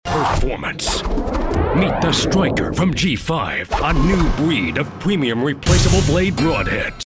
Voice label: attitude

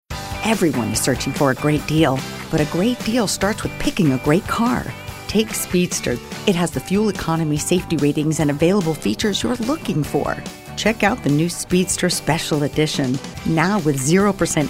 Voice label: perky

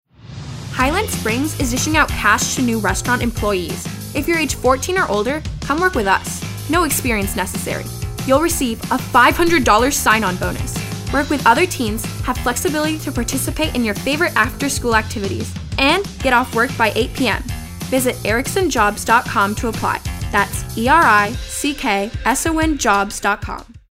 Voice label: upbeat

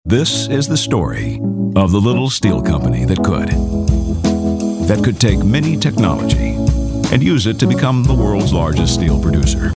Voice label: narrative storyteller

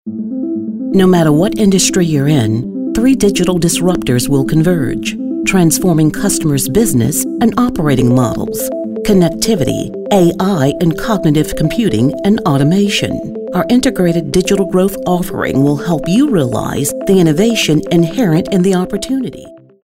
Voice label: corporate

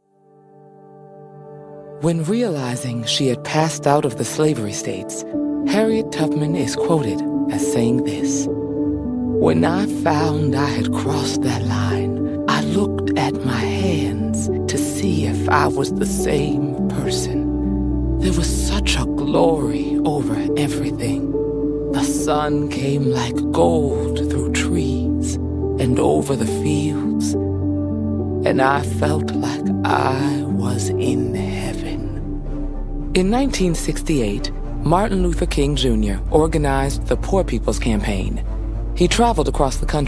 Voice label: Narration

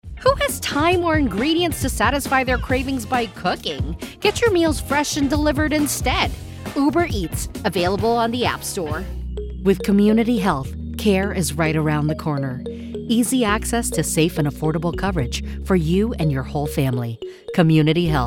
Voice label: Commercial

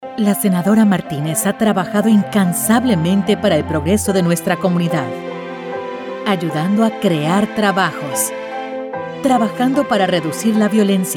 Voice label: Political, Spanish

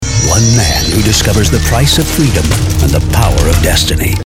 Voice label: Trailer Voice

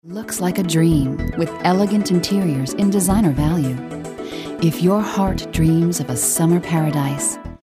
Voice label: Classy

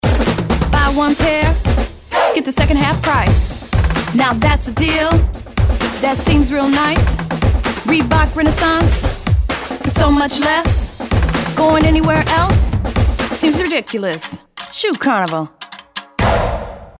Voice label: perky

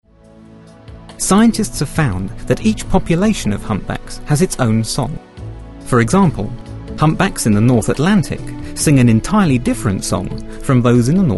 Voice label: narrative

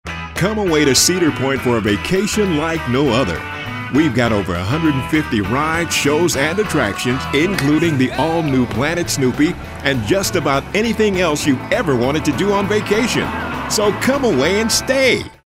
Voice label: urban mature

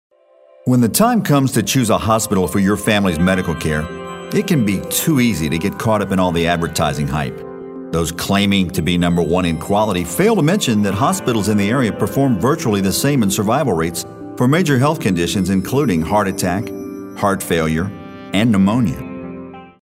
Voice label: caring

